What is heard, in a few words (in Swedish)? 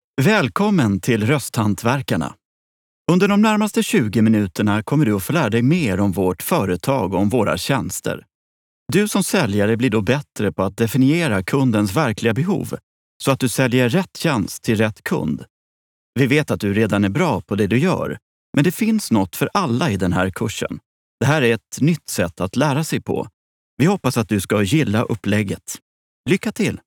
rostprov manlig rost elearning informativ